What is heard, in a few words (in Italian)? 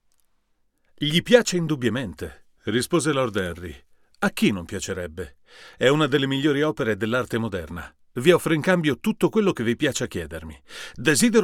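U87AI per confronto con Blue Yeti USB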